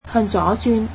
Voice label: Turn left, cantonese